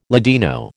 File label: "Ladino", American